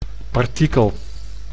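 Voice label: "partikel", Dutch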